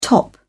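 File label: "top out", British English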